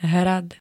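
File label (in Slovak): "hrad", Slovak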